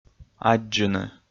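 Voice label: "аддзыны", Komi, male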